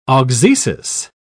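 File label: "auxesis", English, British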